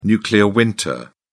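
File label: "nuclear winter", British English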